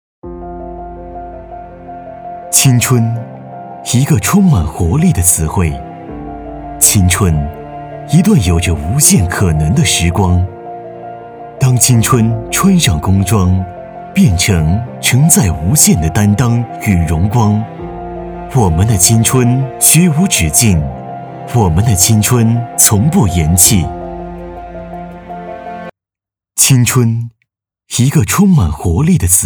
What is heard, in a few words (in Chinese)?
年轻磁性